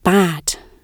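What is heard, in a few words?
"bad", British English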